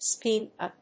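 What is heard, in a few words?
"spin a", English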